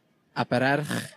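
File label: "Abererch", Welsh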